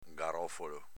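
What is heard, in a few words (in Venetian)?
"garofolo", Veneto dialect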